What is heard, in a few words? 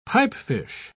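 "pipefish", British English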